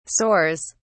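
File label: "soars", British English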